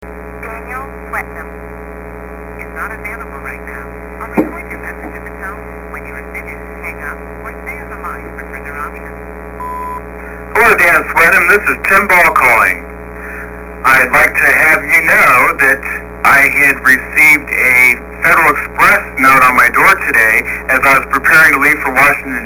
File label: Voice Mail